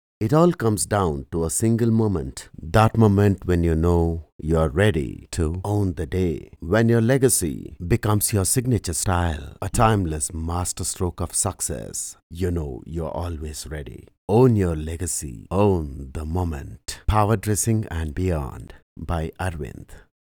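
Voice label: Kannada male English Advertisement; 20 sec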